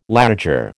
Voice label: "laager", American